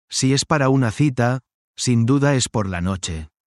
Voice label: spanish phrases, chatting about love